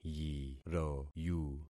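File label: "yiroyu", concatenated syllables; Affixing experiment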